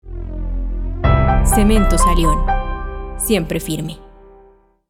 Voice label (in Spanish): AUDIOLOGO CON VOZ DE MARCA; CEMENTOS ALION